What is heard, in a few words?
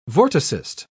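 "vorticist", British English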